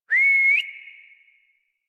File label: Horse Sprint Adult; Warframe; Duviri